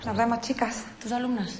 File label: Voice Acting es F